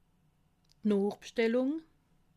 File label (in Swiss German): "noochbstellung", alsace